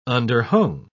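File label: "underhung", English, British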